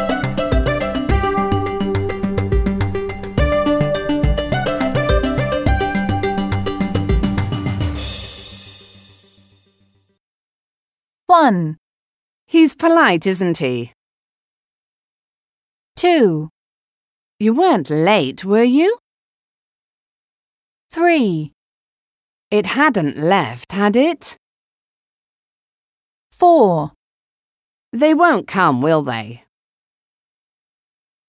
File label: intonation